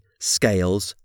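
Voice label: "scales", English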